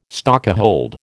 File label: "stokehold", American